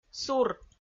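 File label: "сур", Komi-Zyrian, female; audio dict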